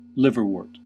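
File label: "liverwort", US English; pronunciations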